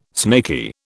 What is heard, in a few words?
"snaky", British